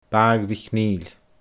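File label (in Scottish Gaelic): "Baagh MhicNeeill", Scottish Gaelic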